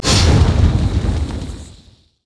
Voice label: puncturing taunt; rammus

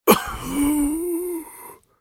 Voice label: dying, en US; Chronokeeper; Character Voices - English

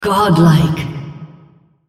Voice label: female On Killing Spree

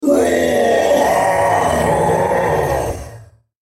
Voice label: dying, en US; BattleCastChogath; Character Voices - English

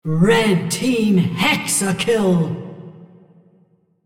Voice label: female Hexa Kill; red

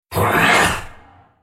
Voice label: effort; KhazixDroid; Character Voices - English

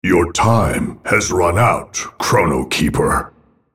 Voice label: attack, en US; Volibear; Character Voices - English

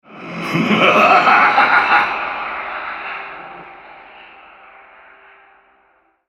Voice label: Altar Capture, Male

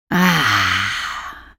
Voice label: ultimate effort; Elise

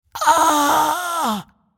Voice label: dying, en US; Judicator; Character Voices - English

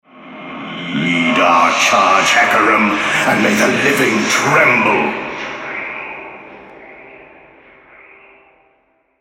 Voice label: altar capture, male, en US; Hecarim

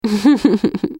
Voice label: spell cast effort; MissFortune

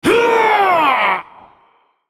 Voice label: ultimate effort, en US; Varus

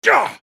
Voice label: effort; Garen; Character Voices - English

